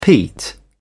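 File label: "peat", British English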